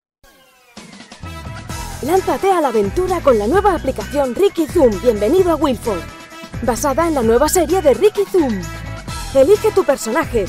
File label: boy voice imitation anime